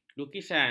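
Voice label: "lukisan", Malay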